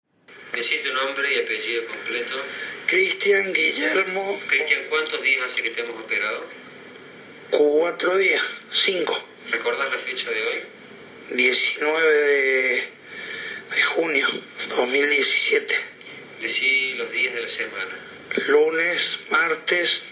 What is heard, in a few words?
5 DAYS AFTER SURGERY, Voice 5 days after surgery, Papilomatosis, Hombre. 43 años; Score de Severidad de Derkay: 6; Archivo de voz. Post operatorio 5 días